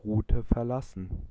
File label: Route Deviated, German, male